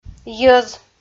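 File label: "йӧз", Komi, female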